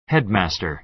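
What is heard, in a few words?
"headmaster", American English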